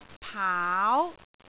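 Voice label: "paau2", Cantonese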